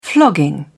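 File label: "flogging", British English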